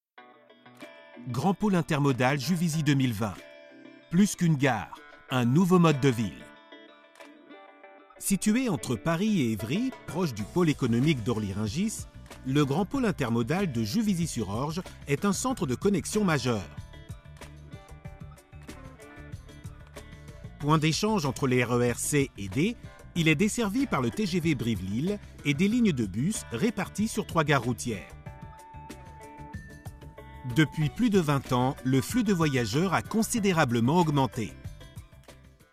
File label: institutionnel, French, male